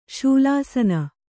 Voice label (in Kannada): "ಶಿಲಾಸನ", kannada